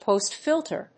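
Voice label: "post-filter", English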